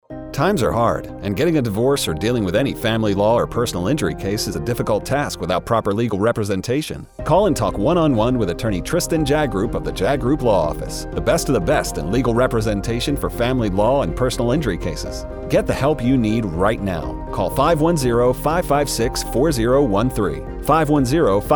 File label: RADIO AD